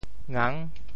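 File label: "ngang6", Teochew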